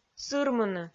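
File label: "сырмыны", Komi, female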